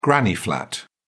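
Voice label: "granny flat", English, British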